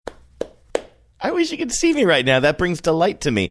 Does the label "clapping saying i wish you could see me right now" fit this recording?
Yes